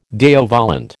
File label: "Deo volente", American